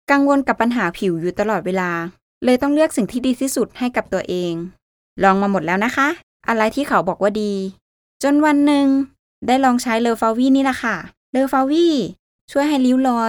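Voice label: Thai E-Learning; GoLocalise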